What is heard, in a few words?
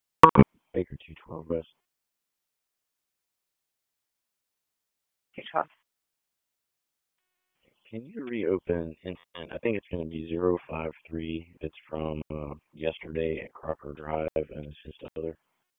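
Raleigh Police Northeast District Dispatch, p25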